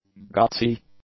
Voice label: "gutsy", english; adjectives